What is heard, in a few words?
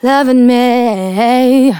Phrase Female; Vengeance Samples; Phrases